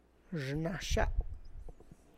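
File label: tlacochahuaya, Zapotec; xhnaxa; my fruit; (Spanish) mi fruta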